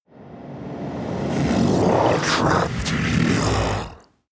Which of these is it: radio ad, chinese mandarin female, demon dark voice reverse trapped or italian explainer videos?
demon dark voice reverse trapped